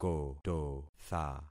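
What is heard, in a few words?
"kototha", concatenated syllables; Affixing experiment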